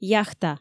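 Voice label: "яхта", Komi, female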